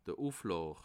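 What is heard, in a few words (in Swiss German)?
"uflaw", Bas Rhin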